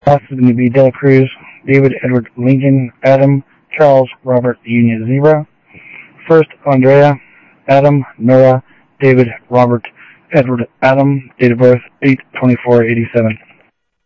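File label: Sheriff West County, scanner audio trunk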